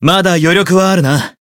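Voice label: voice growth, Japanese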